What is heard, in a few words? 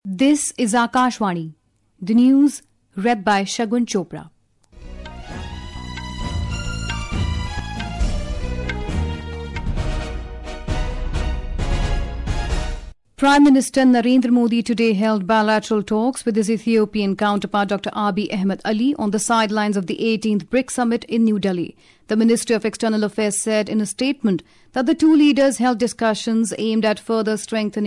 English; Cricket: India thrashed England in Rajkot test